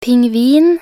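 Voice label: "pingvin", Norwegian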